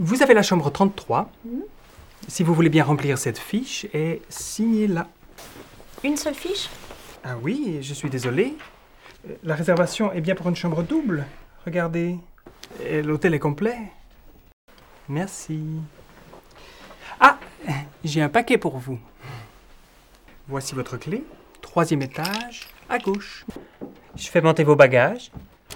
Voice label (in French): francais; deutsch; schauspieler